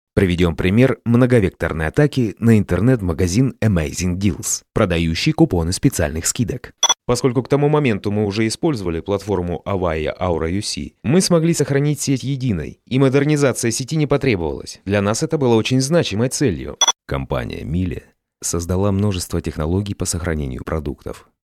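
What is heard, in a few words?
Russian corporate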